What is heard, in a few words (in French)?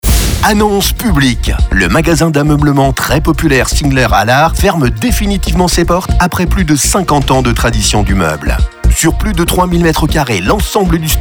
FR; Französischer Werbesprecher; french voice-over talent male